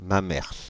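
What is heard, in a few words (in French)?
"Mamers" French, Paris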